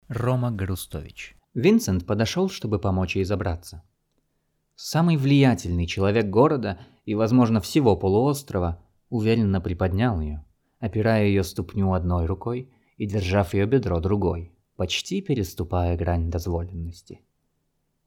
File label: Reading Ruso